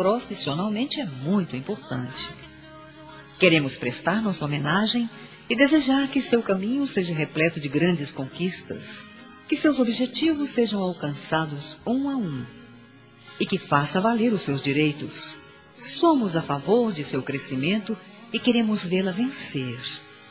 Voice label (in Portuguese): DIA DA MULHER PARA FUNCIONÁRIA F; Telemensagens 2017